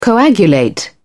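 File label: "coagulate", English, British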